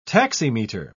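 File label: "taximeter", British English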